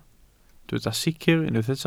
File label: "sicr", Welsh